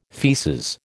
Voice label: "faeces", American